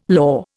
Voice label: "law", Indian

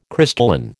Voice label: "crystalline", American

